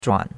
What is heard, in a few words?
"zhuan3", chinois; Cours-de-Chinois